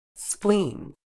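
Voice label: "spleen", British English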